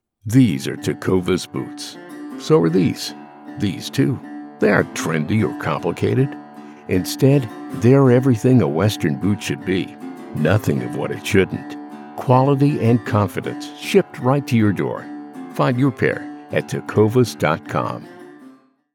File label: Gritty; Warm, Authentic Voice with Broadcast Experience